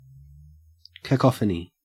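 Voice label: "cacophony", Australian English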